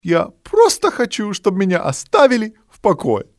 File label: "left alone", male; Soldier Of Fortune II Double Helix; XBOX; civ